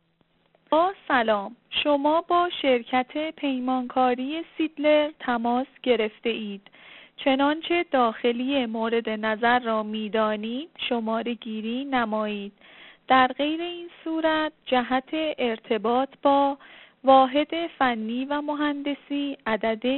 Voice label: نمونه صدای گوینده غیر حرفه ای

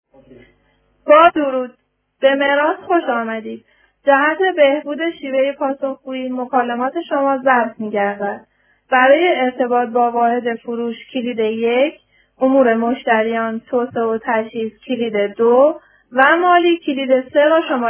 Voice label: نمونه صدای گوینده غیر حرفه ای برای تلفن گویا; تلفن گویا شرکت مراص

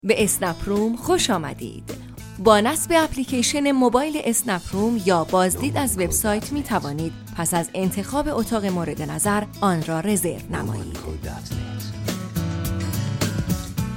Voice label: موزیک انتظار به همراه صدای تبلیغاتی; اسنپ

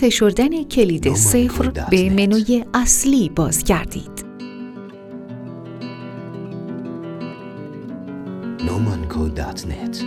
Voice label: داخلی مورد نظر مشغول است با صدای گوینده خانم

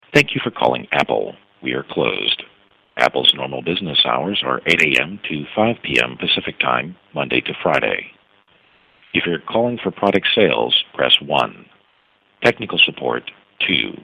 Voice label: نمونه متن ivr برای ساعات غیر کاری; شرکت اپل

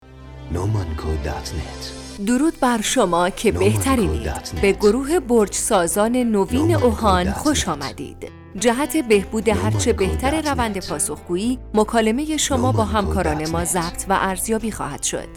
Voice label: گوینده بانو; گروه برج سازان اوهان

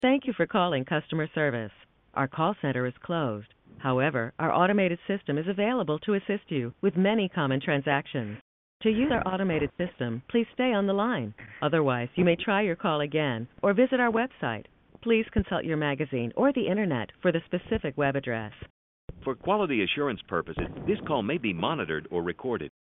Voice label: نمونه متن ivr برای ساعات غیر کاری; موسسه فوربز

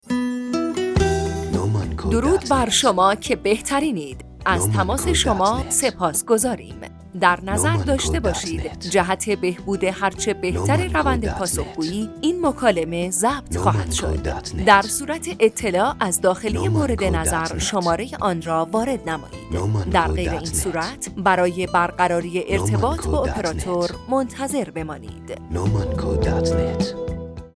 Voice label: صدای منشی تلفنی با صدای گوینده خانم

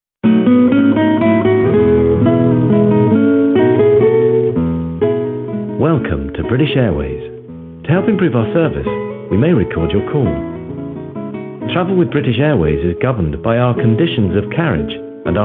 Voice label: متن تلفن گویای; بیریتش ایرویز

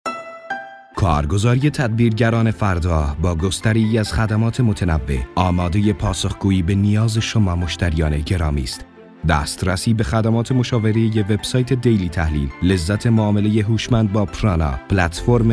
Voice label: پیام تبلیغاتی در زمان انتظار تلفن گویا; تدبیرگران

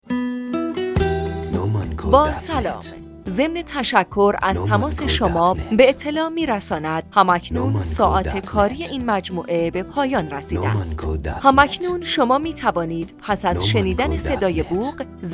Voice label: صدای تلفن گویا برای ساعات کاری صدای گوینده خانم

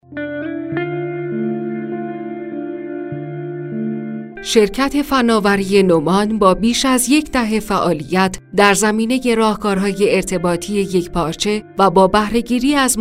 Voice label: صدای تلفن گویا با گوینده بانو

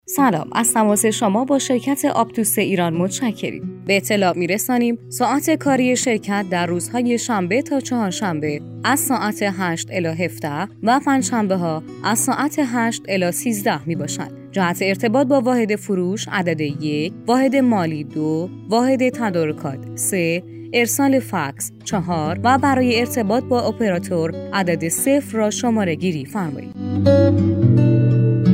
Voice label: فایل صوتی نمونه متن منشی تلفنی

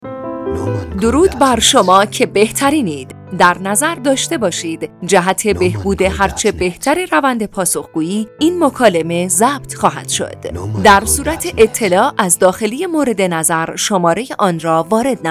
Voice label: صدای منشی تلفنی آماده برای بخش خوش‌آمدگویی

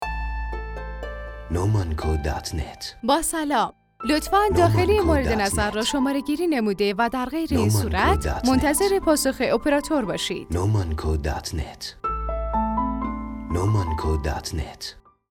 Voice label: صدای گویا با صدای گوینده خانم

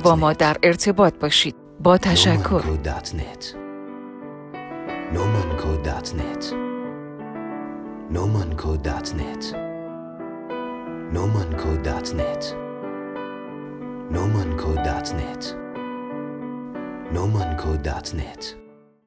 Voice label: صدای گویا برای ساعت کاری با صدای گوینده خانم

